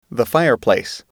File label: "fireplace", English